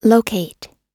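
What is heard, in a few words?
"locate", English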